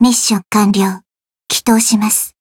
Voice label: voice jp